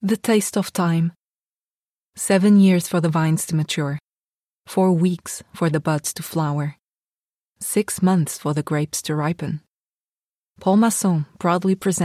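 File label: Portuguese English female commercial; accent of portugal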